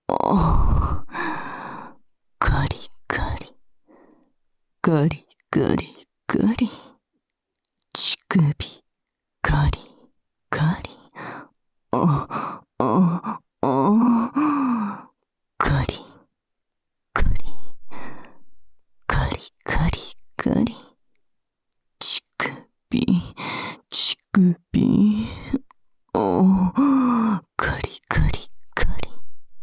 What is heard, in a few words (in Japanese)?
淫語連呼 カリカリ; サブキャラ